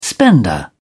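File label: "spender", English, British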